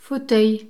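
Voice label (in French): "fauteuil", French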